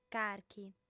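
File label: "carchi", female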